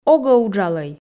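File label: "огӧ уджалӧй", Komi, female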